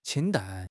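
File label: "qing1 dan4", Chinese; 汉语口语新时代-初级下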